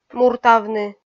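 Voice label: "муртавны", Komi, female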